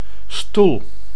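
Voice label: "stoel", Dutch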